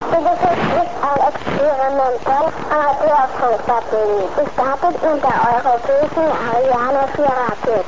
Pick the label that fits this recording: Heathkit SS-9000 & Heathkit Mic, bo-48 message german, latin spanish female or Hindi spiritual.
bo-48 message german